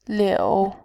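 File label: "liu", Mandarin, tone 3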